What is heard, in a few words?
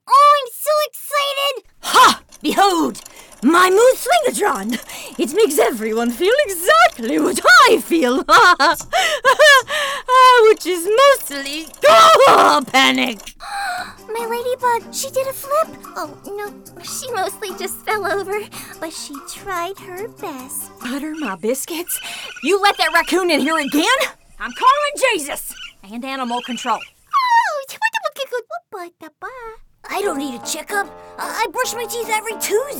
Animation